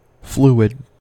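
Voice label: "fluid", US English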